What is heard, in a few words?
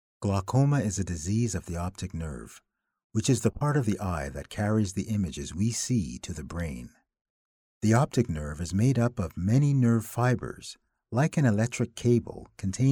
medical narration